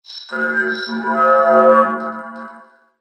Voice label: zarvox reverb